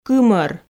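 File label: "кымор", Komi, female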